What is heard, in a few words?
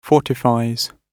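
"fortifies", British English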